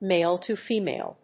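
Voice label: "male-to-female", English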